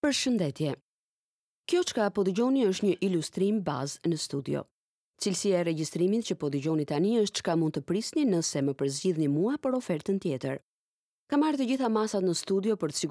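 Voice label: Albanian, General; GoLocalise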